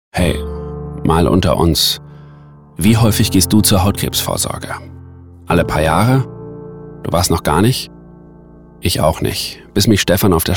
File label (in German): werbung ruhig emotional musik